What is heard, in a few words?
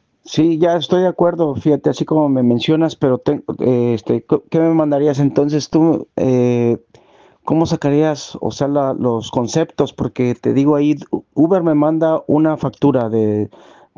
whatsapp ptt